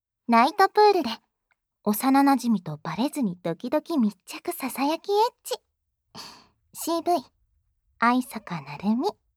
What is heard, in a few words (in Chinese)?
标题朗诵; 无SE